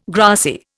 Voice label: "grassy", Indian

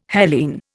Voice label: "Hellene", Indian

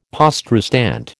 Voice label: "poste restante", American

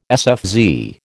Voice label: "sfz", American

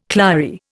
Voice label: "clary", Indian

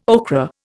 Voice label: "okra", Indian

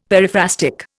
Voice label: "periphrastic", Indian; Dictionary

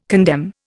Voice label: "condemn", Indian

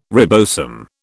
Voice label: "ribosome", British

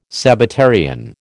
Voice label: "Sabbatarian", American

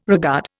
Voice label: "regard", Indian

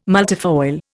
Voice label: "multifoil", Indian

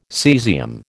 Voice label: "caesium", American

